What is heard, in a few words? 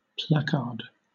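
"placard", British English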